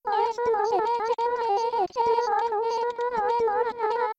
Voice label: Female Voice